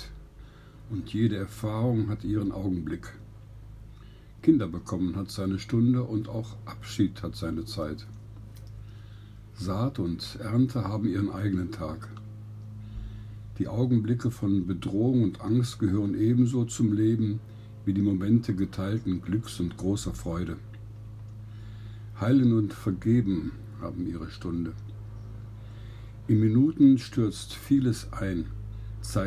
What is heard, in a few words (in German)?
Lesung aus Prediger 3